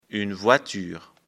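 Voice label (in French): "voiture", French; afrikalan; lecture fr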